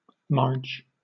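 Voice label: "marge", British English; pronunciations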